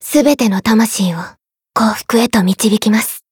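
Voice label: Voice variable chant, Japanese; maturika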